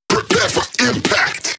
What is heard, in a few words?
Vocal Phrase Male "Prepare"; bass house